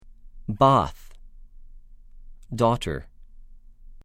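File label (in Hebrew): "בַּת", Hebrew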